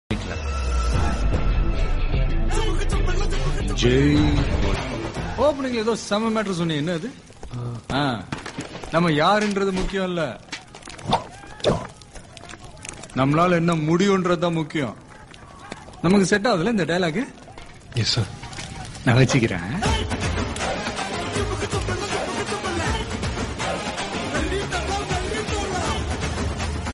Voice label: tamil attitude dialogue